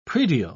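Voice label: "praedial", English, British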